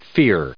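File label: "fear", US English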